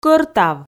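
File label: "кӧртав", Komi, female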